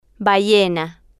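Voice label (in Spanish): "ballena", Spanish, female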